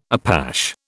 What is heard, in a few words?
"Apache", British